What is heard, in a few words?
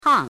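"hang" fourth tone, pinyin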